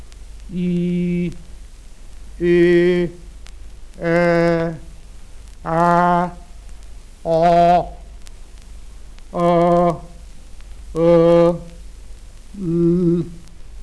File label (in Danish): nummer 9-16 monotont; nr. 9-16 på jævn tone